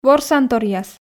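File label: "ворсанторъяс", Komi, female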